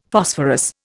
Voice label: "Phosphorus", Indian; Dictionary

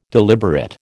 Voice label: "deliberate", American

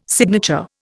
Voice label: "signature", Indian

